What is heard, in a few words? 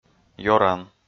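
"ёран", Komi, male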